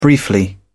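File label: "briefly", British English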